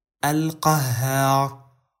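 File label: "al qahhar", Arabic; 99names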